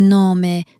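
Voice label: "non mais", French, female; flashcards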